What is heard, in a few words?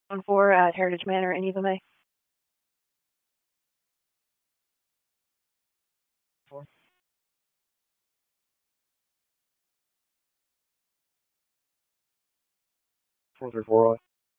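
Police Southeast District Dispatch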